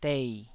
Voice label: "dei3", Taiwanese